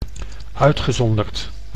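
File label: "uitgezonderd", Dutch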